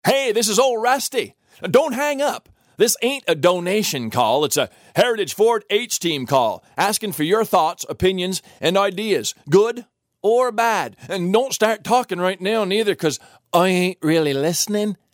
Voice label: Robo PHONE PURCHASE